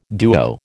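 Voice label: "duo", American English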